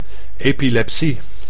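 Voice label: "epilepsie", Dutch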